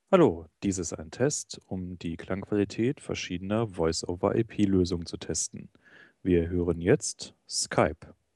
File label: Skype